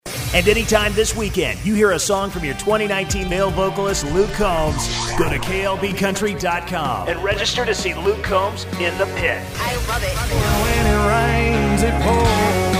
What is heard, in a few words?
COUNTRY IMAGING MIX